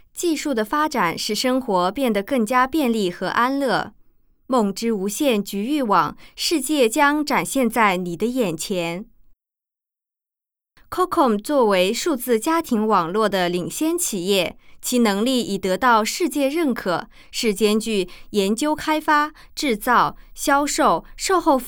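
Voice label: chinese mandarin female narration; voice demos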